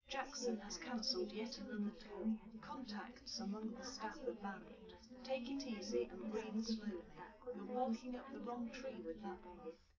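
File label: female, live recording; SiSEC11; underdetermined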